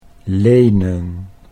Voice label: "lenen", Dutch